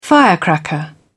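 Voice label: "firecracker", British English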